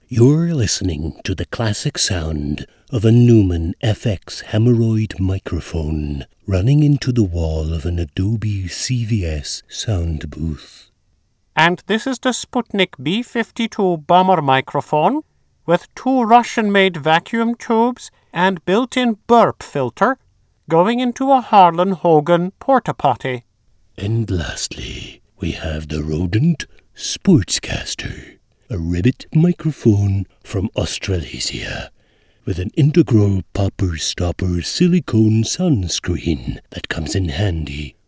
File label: mic test; Nethervoice